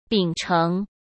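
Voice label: "秉承", Mandarin Chinese; hsk; chinese-flashcards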